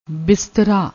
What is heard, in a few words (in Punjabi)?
"bistraa", Punjabi